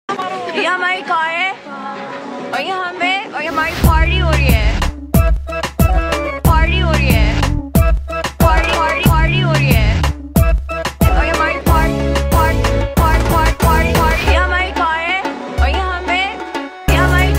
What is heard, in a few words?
Dialogue With Beats Ringtone